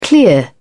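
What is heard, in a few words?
"clear off", English, uk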